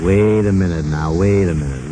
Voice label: "wait a minute now", male; VOCALS and SPOKEN WORD

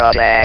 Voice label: ATTACK, votrax; VIDEO GAMES

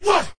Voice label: "what", voice

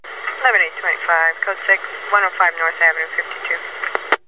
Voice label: police radio garbled female; MISC and SPOKEN WORD

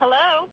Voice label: phone hello, female spoken word; MISC and SPOKEN WORD

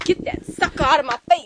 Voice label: get that sucka outta my face, female spoken word; MISC and SPOKEN WORD

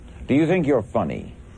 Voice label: do ya think youre funny, male spoken word; VOCALS and SPOKEN WORD

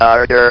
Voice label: "HARDER", votrax; VIDEO GAMES

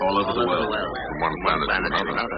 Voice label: all over the world warble, male spoken word; VOCALS and SPOKEN WORD

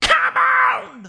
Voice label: "cmon" compressed, voice

